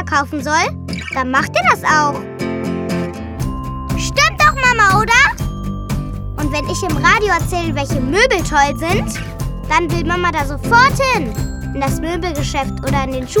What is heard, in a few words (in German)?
Werbung Kinderstimmen